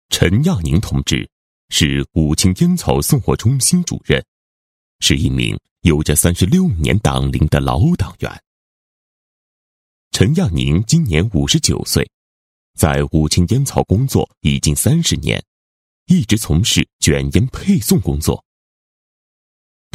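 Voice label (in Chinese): 男 人物 老党员, 普通话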